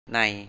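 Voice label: "nay" Thai, 'in'; ใน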